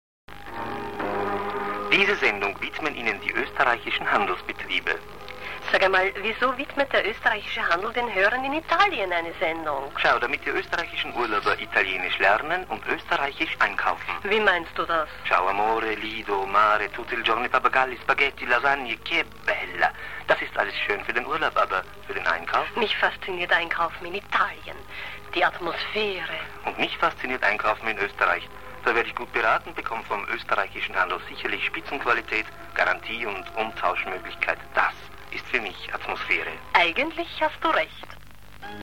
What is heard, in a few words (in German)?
werbung